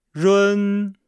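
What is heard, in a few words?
"run", Chinese; Nihao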